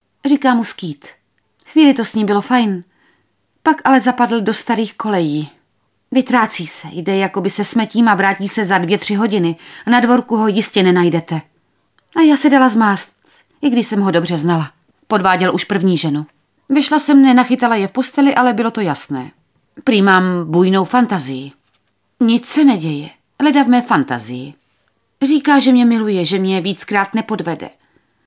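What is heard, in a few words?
Female Czech documentary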